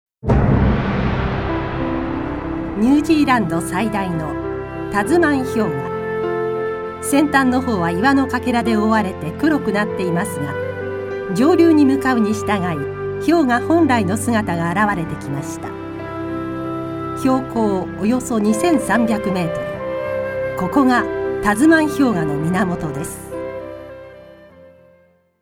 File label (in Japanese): 紀行番組